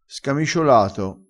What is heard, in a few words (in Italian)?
"scamiciolato", crespinese